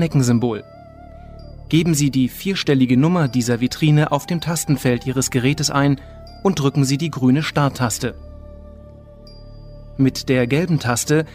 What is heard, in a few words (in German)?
Audioguide; Museum Stuttgart